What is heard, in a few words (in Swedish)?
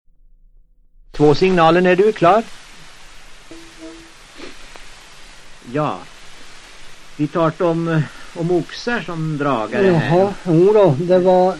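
Hede Härjedalen, dialekter, Svenska sagor; Folkminnen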